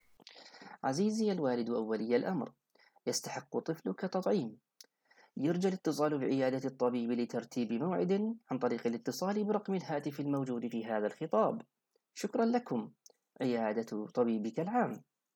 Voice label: Arabic